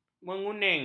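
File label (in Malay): "menguning", Malay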